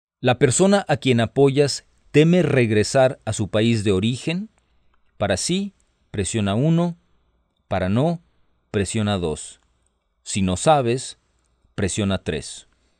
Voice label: Spanish, hotline